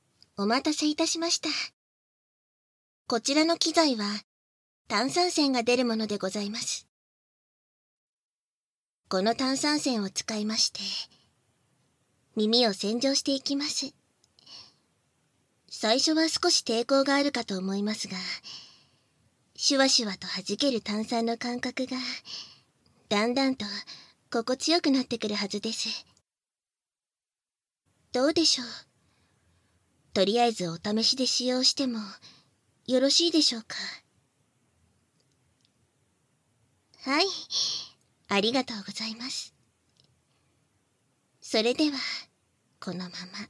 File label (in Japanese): 耳洗浄（簡易モノラル編集 効果音なし）